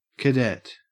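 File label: "cadet", Australian English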